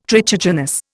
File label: "trichogenous", Indian